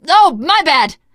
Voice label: sorry, teen girl voice; survivor voice